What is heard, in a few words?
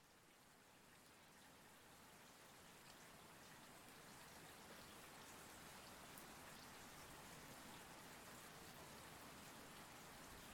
Rainspotting, Sleep Aid, Relaxation, Rain, Breathing, Slow, Whisper